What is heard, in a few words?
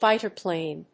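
"Fighter plane", English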